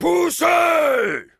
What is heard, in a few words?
Heavy cart moving forward offense, fr